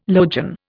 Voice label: "logion", Indian

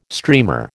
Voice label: "streamer", American

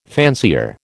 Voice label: "fancier", American

